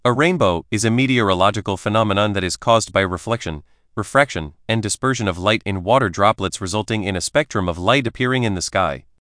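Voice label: speaker, American English; piper-voices; en US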